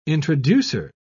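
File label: "introducer", English, uk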